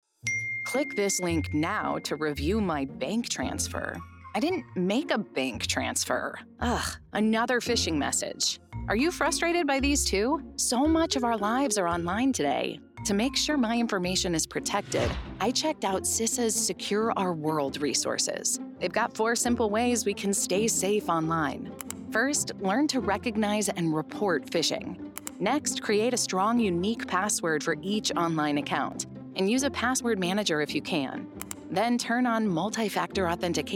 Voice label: Radio PSA; CISA